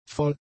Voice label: "voll", German; adjectives